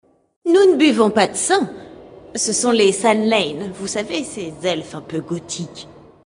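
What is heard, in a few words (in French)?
Female Blague, voix françaises; Elfe du Vide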